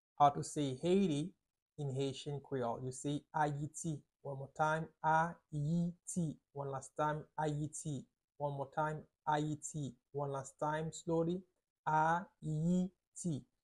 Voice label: How say Haiti in Haitian Creole – Ayiti Pronunciation by a Native Haitian Teacher